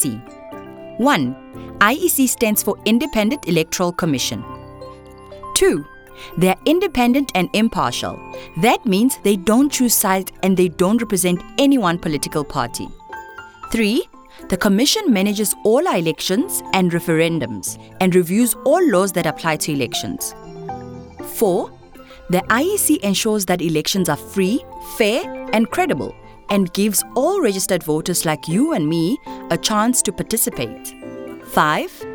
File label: What is the IEC, English